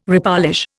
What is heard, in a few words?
"repolish", Indian